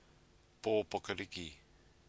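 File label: "popokoriki", Māori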